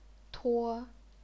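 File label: "tuo", chinese pinyin, first tone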